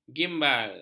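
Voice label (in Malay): "gimbal", Malay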